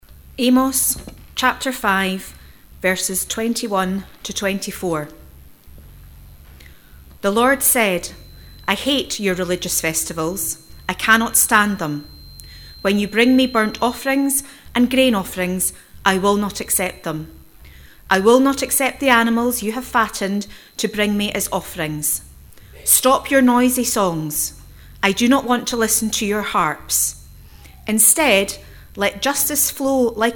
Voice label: read; Amos 5: 21-24; St. Mungo's